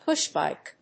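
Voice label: "pushbike", English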